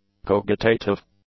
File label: "cogitative", English; adjectives